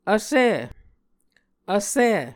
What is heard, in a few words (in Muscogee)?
"vsi", muscogee